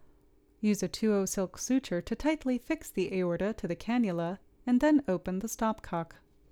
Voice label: Voiceover